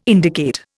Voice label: "indicate", Indian